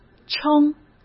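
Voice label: "撑", Mandarin Chinese; chinese flashcards; hsk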